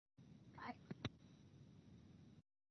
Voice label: Voice Mail; Skylook